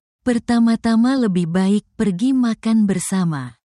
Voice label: indonesian phrases for talking about love and relationships